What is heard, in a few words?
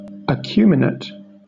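"acuminate", British English; pronunciation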